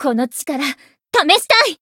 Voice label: growth, jp